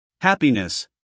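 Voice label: "happiness", American English, male; everyone-can-use-english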